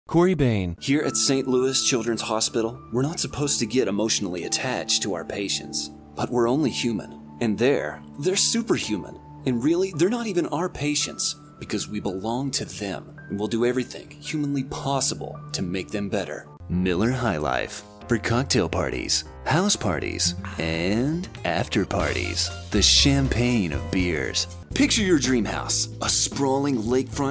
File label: Commercial